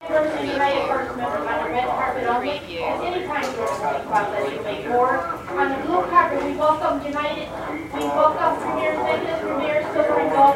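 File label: Airport Board Announcement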